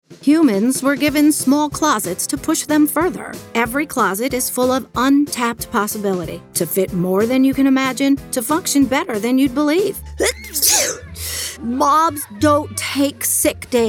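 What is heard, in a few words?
Commercial, Female voices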